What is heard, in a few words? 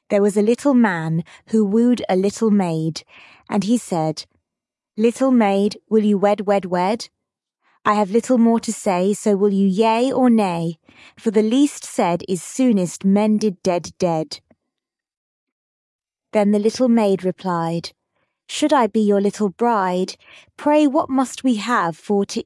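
female British; There was a Little Man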